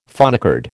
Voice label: "phonecard", American